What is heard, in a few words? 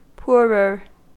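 "poorer", US English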